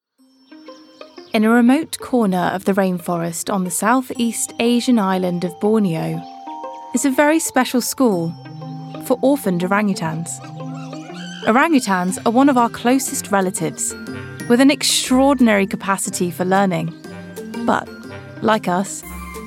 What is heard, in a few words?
Documentary